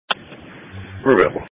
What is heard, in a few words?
EMS Dispatch